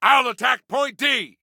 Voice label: ATTACK, Soldier Male; Soldier Male 03